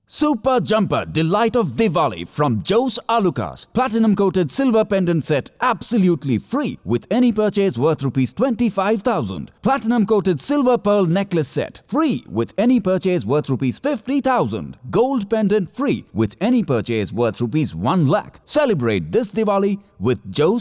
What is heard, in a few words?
Intro in English for Jewelry Commercial; Jos Alukkas